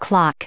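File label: "clock", English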